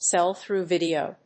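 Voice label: "sell-through video", English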